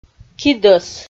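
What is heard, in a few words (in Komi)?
"кидӧс", Komi, female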